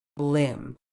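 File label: "limb", British English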